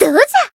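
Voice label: attack, Japanese; leviachan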